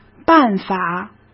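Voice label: "办法", Mandarin Chinese; chinese flashcards; hsk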